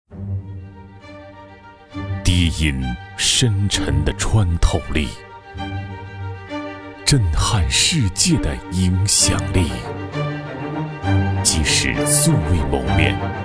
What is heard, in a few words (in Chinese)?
男 高端品质感; 海信